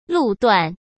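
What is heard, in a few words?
"路段", Mandarin Chinese; chinese-flashcards; hsk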